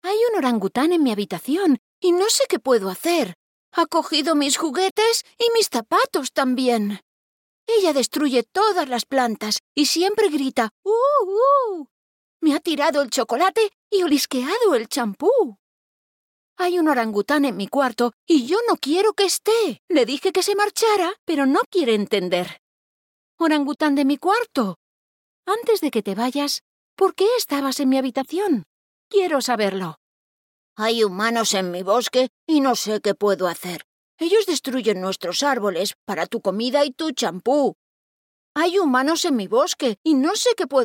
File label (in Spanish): animación orangutan no breaths